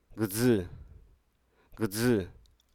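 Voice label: Hruso Aka; crab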